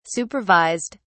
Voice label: "supervised", en uk